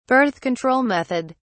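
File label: "birth-control method", British English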